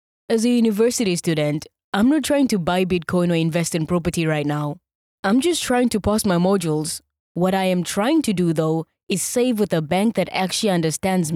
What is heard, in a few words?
English Corporate